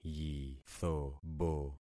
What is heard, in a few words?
"yithobo", concat syllables; Affixing experiment